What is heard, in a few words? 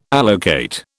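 "allocate", British English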